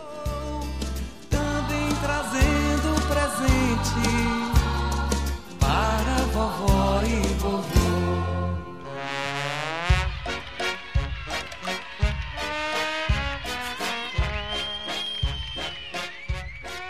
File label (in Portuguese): Feliz Natal e Ano Novo pra Crianca, M